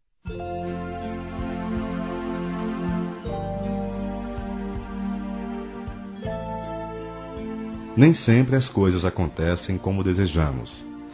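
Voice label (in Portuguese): RECADO AO DOENTE, Masculino; Telemensagens; Telemensagens 2017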